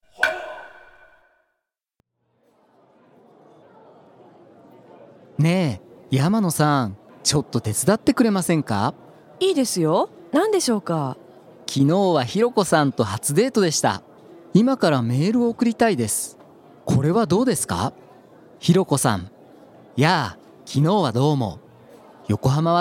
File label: dialog; JapanesePod101; Beginner Season 1